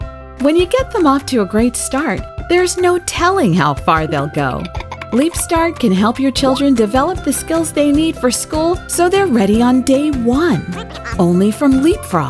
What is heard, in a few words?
Commercial